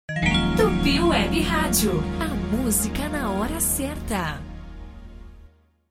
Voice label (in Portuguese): locutora, música na hora certa